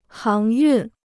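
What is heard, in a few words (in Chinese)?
"航运", Chinese; háng yùn; transport maritime; expédition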